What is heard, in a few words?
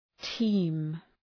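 "team", English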